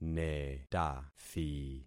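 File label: "netathi", concatenated syllables; Affixing experiment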